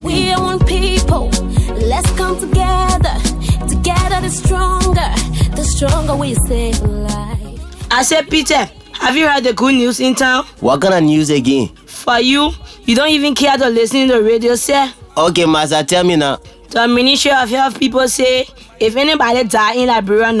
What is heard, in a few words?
body swab drama